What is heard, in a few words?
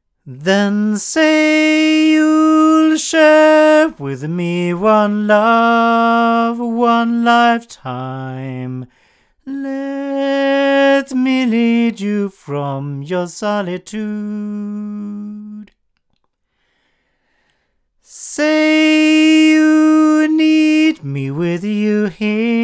CC22 male, microphones recordings; Pearl